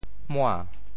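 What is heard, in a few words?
"mua~2", Teochew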